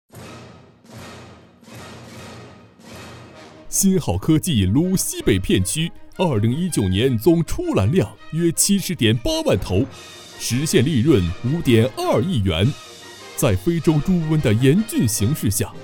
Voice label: 男 专题颁奖; 新好科技

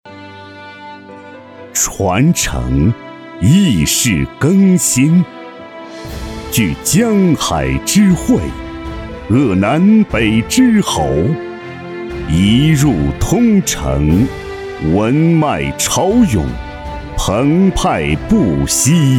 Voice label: 男 广告 大气文化地产

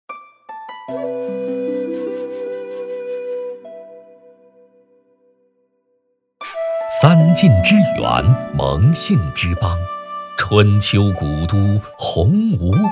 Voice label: 男, 城市介绍, 历史文化感; 晋都新驿站-天下汇侯马

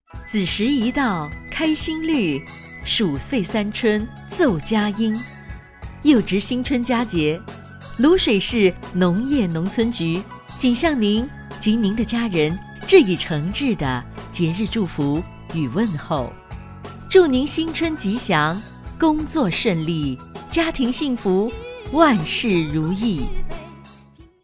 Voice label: 女 宣传 知性温柔女声

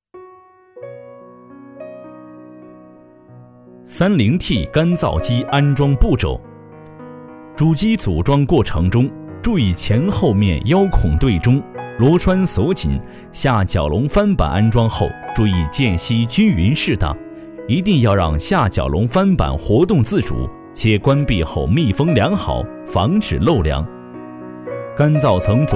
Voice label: 男 解说 技术说明类 干燥机组装流程

